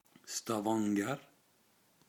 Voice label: Stavanger Male

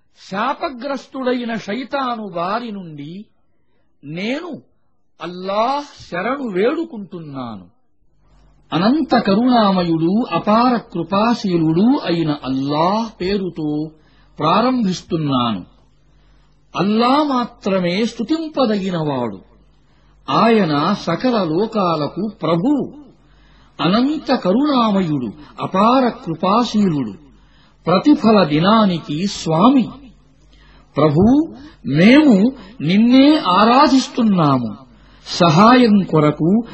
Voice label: Telugu Audio Quran Translation

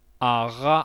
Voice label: Voiced uvular fricative

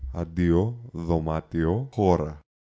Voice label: Greek pronunciation example

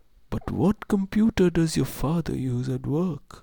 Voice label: old man dialogue

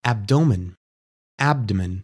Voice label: Abdomen Disputed Pronunciation

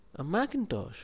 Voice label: boy dialogue; fourth dialogue

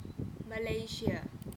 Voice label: Malaysia 3 syllable pronunciation

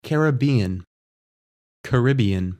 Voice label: Caribbean Disputed Pronunciation

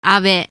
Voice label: Japanese surname "Abe"

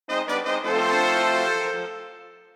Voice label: Emphasis

